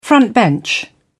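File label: "front bench", English, British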